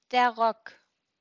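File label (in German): "Rock", German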